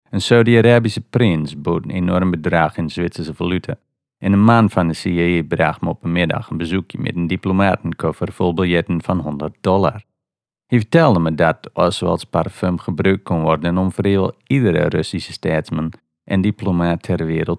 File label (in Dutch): NL Achterhoeks